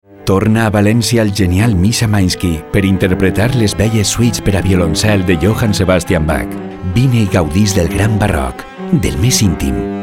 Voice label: Catalan commercial